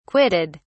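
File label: "quitted", English, uk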